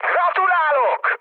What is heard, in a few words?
player killed enemy, mood high, speech hun; War Thunder